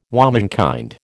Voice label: "womenkind", American

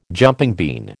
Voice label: "jumping bean", American

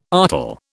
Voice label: "artel", British

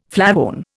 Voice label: "flavone", Indian

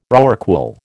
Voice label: "rorqual", American

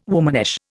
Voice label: "womanish", Indian

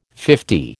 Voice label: "fifty", American

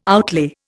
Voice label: "outlay", Indian

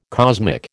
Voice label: "cosmic", American

